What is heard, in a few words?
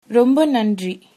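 thanks, Tamil, female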